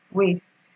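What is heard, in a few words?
"waist", Nigerian Pidgin, female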